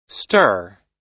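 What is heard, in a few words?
"stir", US English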